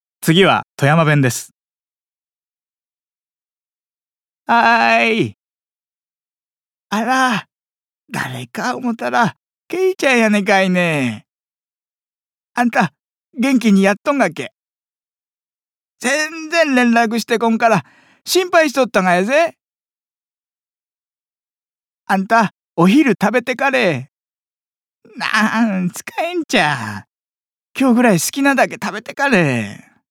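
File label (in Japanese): 方言, ボイスサンプル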